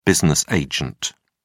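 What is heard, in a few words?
"business agent", British English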